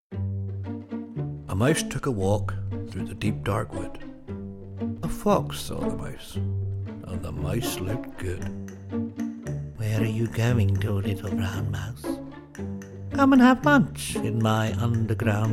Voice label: Animation, Male